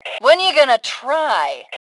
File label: Taunt Learn, Female; T2 Female 03